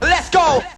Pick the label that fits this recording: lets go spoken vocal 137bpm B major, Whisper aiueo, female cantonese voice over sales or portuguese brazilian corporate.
lets go spoken vocal 137bpm B major